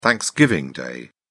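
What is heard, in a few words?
"thanksgiving day", English, British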